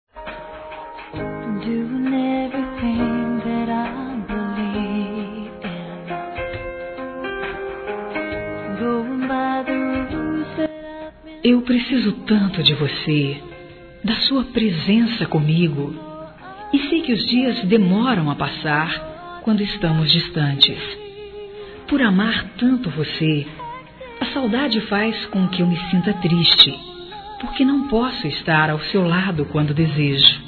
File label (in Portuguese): Amor a Distancia Feminino, Telemensagens